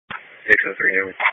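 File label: Police Patrol Dispatch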